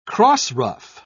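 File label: "crossruff", British English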